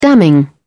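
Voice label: "damning", British English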